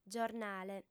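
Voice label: "giornale", female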